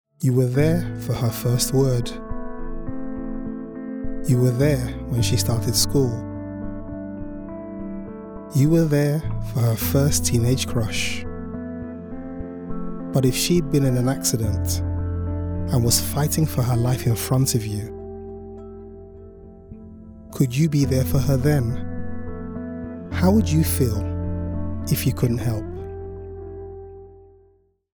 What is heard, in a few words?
Narration Read